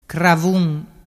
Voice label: "cravun", dialettu

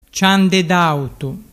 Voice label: "ciandedautu", dialettu

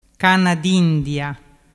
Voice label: "canna dindia", dialettu

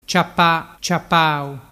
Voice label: "ciapa ciapau", dialettu

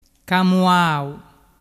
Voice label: "camuau", dialettu

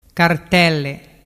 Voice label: "cartelle", dialettu

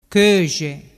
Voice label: "coxe", dialettu

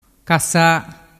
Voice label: "cassa", dialettu

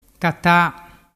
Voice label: "cata", dialettu

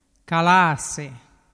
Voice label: "calase", dialettu

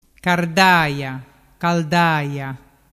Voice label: "cardaia" caldaia, dialettu

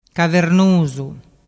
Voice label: "cavernusu", dialettu

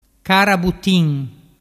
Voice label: "carabutin", dialettu

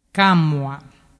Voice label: "camua", dialettu

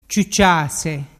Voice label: "ciucciase", dialettu

